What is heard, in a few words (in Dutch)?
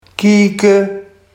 "kieke", Barghs